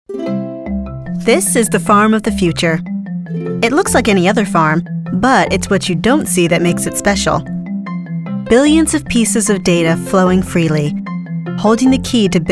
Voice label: narration, women